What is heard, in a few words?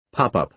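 "pop-up", American English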